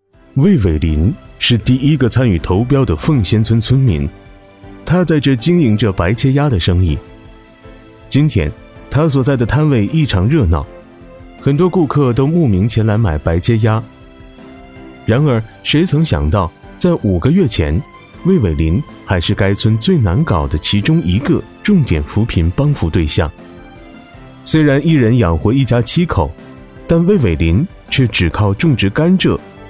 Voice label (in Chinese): 男 专题 脱贫人物